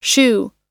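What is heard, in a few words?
"shoe", American English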